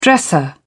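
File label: "dresser", British English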